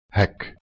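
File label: "h2ek", Proto-Indo-European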